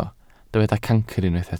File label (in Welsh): "cancr", Welsh